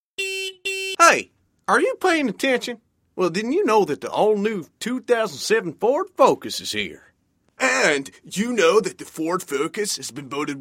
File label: VO Accents